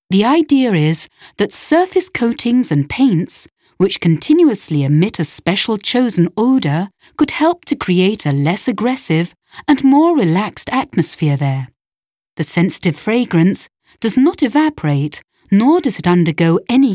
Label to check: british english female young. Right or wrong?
Right